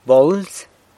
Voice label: "bolz", San Lucas Quiavini; bag

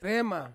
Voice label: "rema", Ansus; betel pepper

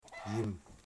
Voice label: "water", Wamut

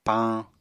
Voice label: "pañ", apatani

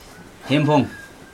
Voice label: "hempo", Chug, nose

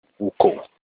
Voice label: "uko" season time, Olukumi

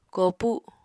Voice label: "koopu", Apatani

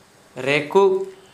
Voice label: "re:kug", Gta, Dialect: Hill

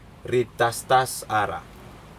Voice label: they are talking, Aneityum

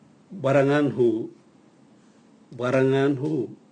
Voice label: nose, Raga

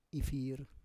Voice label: "ifir", Roon

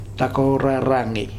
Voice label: "Takoraragi", futuna aniwa

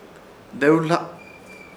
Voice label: "Dewhla", Ishir Chamacoco; mosquito grande

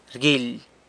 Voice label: "rguily", San Lucas Quiaviní; looks for

